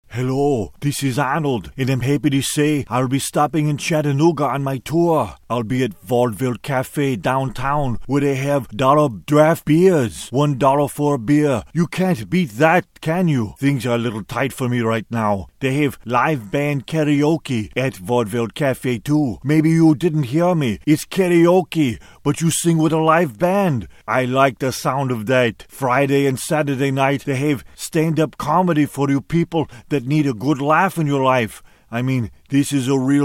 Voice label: character voice; rnold schwarzenegger